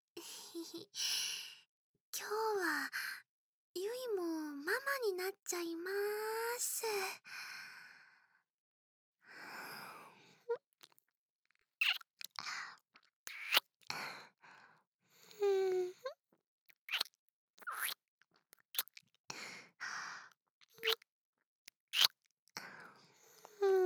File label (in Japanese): 添い寝（左右反転BGMなし）; 癒し系専属ナースのゆいちゃんと菜々子ママに甘々&バブみで包まれちゃうバイノーラル